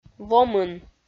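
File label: "вомын", Komi, female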